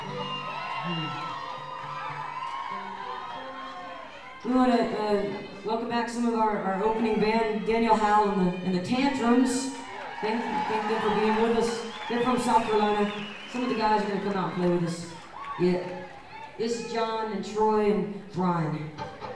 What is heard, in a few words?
talking with crowd